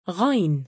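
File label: "ghain", Arabic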